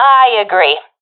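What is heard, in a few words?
agree, professional female; vo; Counter Strike Model Pack; CS:GO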